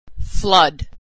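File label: "flood", American English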